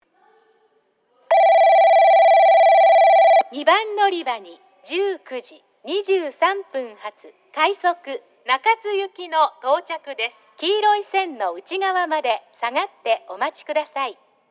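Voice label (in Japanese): 2番のりば接近放送, 女声; 行橋; 快速 中津行き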